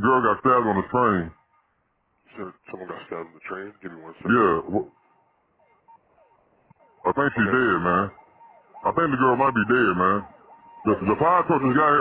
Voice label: Deadly Light Rail Stabbing 911 Call